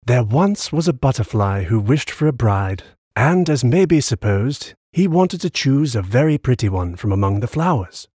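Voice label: English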